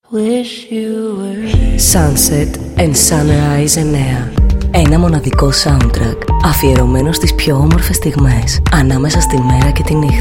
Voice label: Radio Spot; Sunset Sunrise 11